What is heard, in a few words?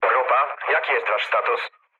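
Polish chat; War Thunder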